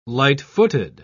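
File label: "light-footed", English, British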